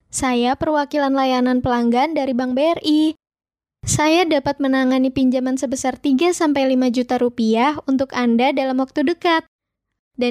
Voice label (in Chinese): 印尼语女声